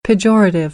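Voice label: "pejorative", American English; AmericanBritish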